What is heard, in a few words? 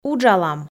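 "уджалам", Komi, female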